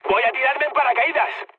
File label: bailout, mood high, speech; War Thunder